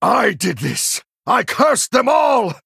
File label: Male Human Play